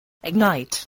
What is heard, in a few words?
"ignite", voice spelling